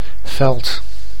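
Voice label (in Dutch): "veld", Dutch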